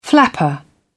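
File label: "flapper", British English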